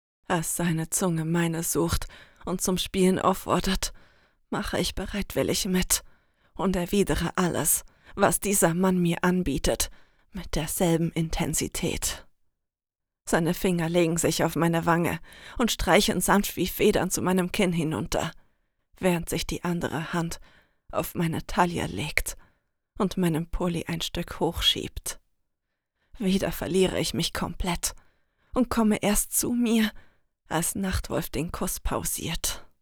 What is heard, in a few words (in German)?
Auszug, sprecherinnen; Stimmen Weiblich